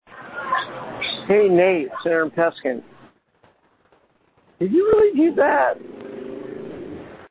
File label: voicemail; aaron peskin